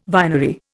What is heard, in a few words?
"vinery", Indian